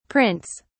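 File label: "prints", British English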